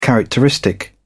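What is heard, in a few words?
"characteristic", British English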